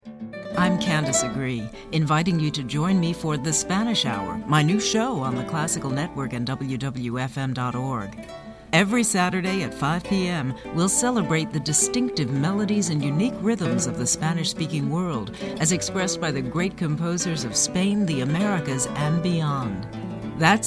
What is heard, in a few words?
promo